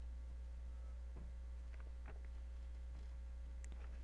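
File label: "gentle", spoken word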